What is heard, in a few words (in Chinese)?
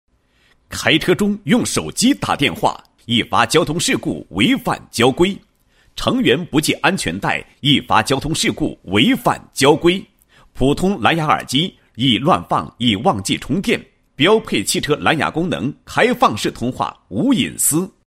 男, 科技解说; 车充蓝牙耳机